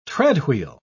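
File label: "treadwheel", British English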